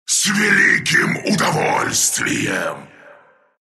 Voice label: move, ru

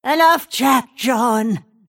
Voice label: attack pain; snapfire

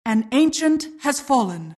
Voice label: announcer ancient fallen; Dota 2

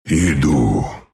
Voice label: move, ru; dota2

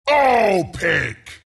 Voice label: announcer type all pick; Bristleback announcer

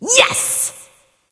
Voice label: Emote Yes; The Sparrow Nike

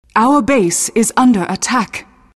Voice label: announcer base attack our

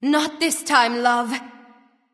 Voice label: Female Ability; Valentine Female Khepri

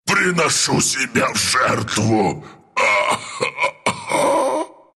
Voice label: death, ru; Dota 2; Husk

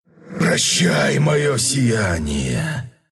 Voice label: death, ru; dota2

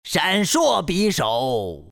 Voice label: blink, zh; Dota 2

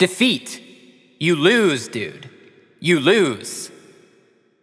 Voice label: Announcer Match End Defeat

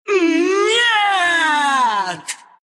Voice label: lose, ru

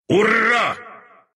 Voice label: arc win, ru

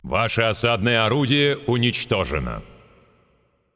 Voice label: Announcer Russian Match Siege Destroyed

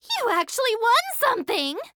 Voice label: Announcer Round Won; Evie; paladins

